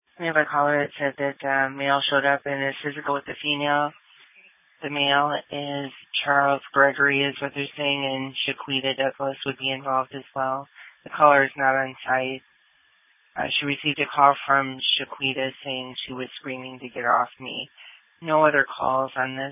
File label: Police Dispatch